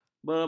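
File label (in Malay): "bebas", Malay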